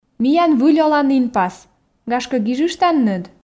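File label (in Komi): миян выль оланінпас гашкӧ гижыштанныд, Komi, female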